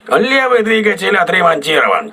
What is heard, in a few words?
voice message left engine repaired, crew ships russian